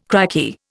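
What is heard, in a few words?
"crikey", Indian English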